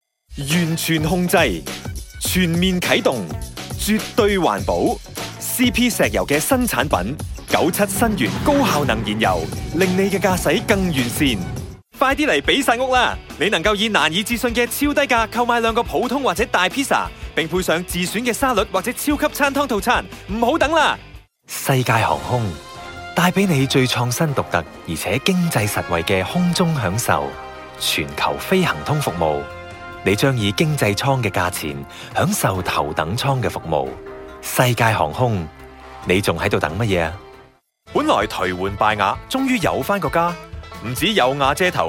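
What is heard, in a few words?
Cantonese, TV commercial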